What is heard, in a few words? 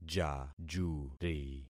"jajuri", concat syllables; Affixing experiment